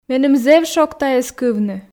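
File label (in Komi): меным зэв шог тайӧс кывны, Komi, female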